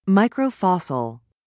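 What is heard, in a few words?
"microfossil", British English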